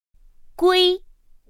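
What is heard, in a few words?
"guī", Mandarin Chinese pinyin; pinyin2; pinyinji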